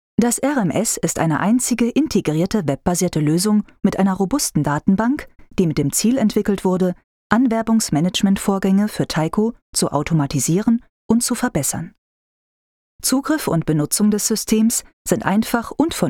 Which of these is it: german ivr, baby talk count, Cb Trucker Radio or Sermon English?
german ivr